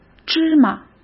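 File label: "芝麻", Mandarin Chinese; hsk; chinese-flashcards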